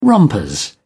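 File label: "rompers", English, British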